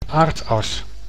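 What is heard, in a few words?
"aardas", Dutch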